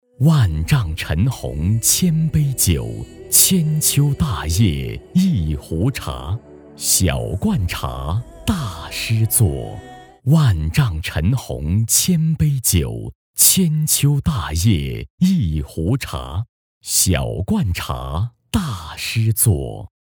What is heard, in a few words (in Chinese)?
广告 食品饮料 大气, 男国; 小罐茶大师作